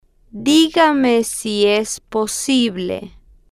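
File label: digame Si Es Posible, slow, Spanish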